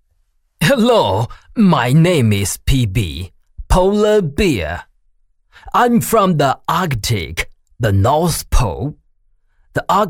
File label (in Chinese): 短剧配音英语样音; k11定制剧; Symbiotic; 共生第二幕